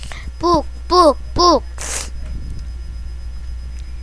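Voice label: "book", spoken word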